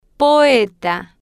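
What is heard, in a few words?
"poeta", spanish, slow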